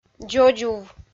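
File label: "дзодзув", Komi, female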